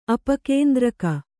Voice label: "ಅಪಕೇಂದ್ರಕ", Kannada

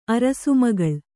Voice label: "ಅರಸುಮಗಳ್", Kannada

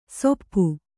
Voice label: "ಸೊಪ್ಪು", Kannada

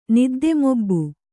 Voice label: "ನಿದ್ದೆಮೊಬ್ಬು", kannada

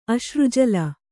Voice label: "ಅಶ್ರುಜಲ", Kannada

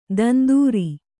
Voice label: "ತಂದುರಿ", Kannada

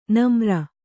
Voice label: "ನಮ್ರ", Kannada

